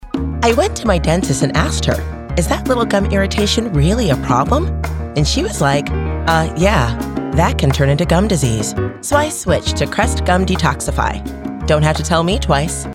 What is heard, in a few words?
TV Commercial